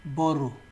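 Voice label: "borrow", Nigerian Pidgin, male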